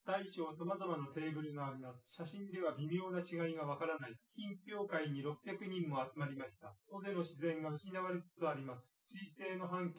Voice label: male synthconv 250ms 5cm

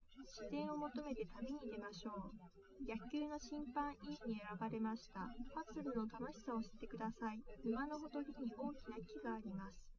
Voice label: female, live rec; SiSEC08; SiSEC underdetermined

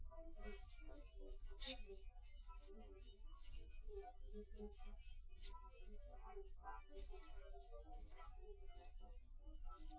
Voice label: female, live rec, 250ms, 5cm

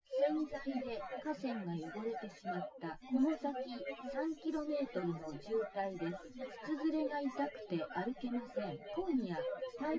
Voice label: test female synthconv 130ms 1m src

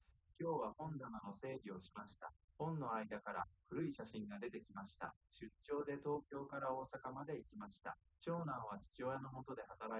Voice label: male3 liverec 250ms 1m sim; SiSEC08; SiSEC underdetermined

